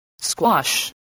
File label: "squash", voice spelling